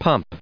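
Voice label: "pump", English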